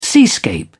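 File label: "seascape", British English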